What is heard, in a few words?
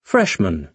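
"freshman", British English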